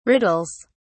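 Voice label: "riddles", British English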